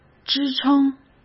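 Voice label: "支撑", Mandarin Chinese; chinese-flashcards; hsk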